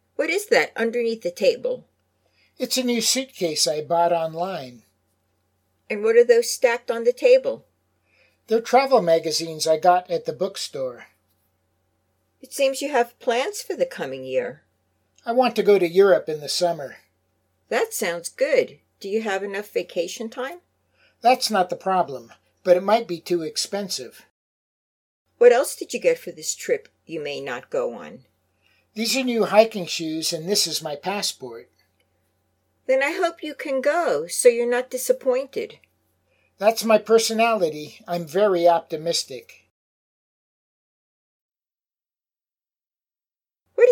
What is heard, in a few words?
Full Dialog Natural